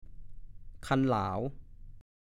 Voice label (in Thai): "คันหลาว", ภาษาโคราช; ไม้ที่เสี้ยมปลายสองข้างให้แหลมใช้หาบกล้าข้าวหรือข้าวฟ่อน